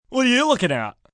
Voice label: You Lookin At, Attitude, male: 'What are you looking at?' goofy; Talking; human voice speaking